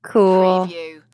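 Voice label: Woman Says Cool Mellow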